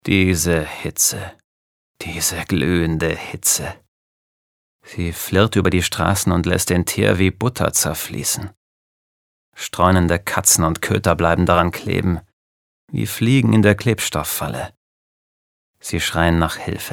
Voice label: Schauspiel, Neutral

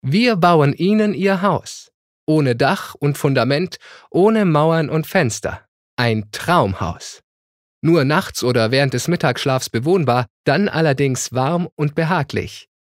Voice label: Werbung, Cool

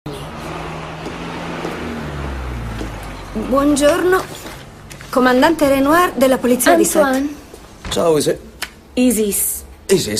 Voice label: IT Fremdtake Variabel

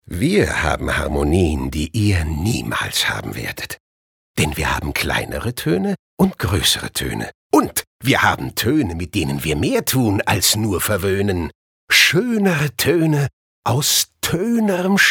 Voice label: Schauspiel, Lebendig

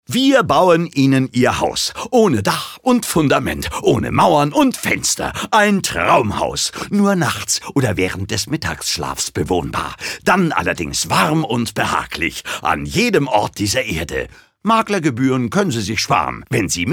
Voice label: Werbung Energisch

